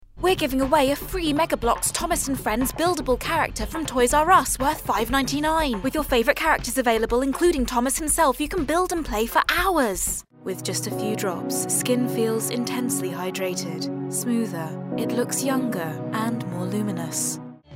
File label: Voicereel Commercial